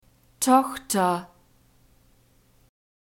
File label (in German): "tochter", German; nouns gender feminine